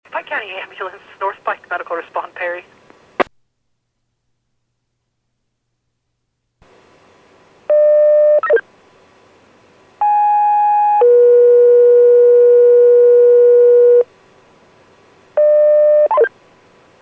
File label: PAGING